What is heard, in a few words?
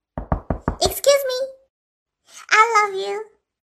cute message ringtone excuse me i love you